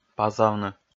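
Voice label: "пазавны", Komi, male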